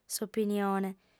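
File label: "s'opinione", Sardinian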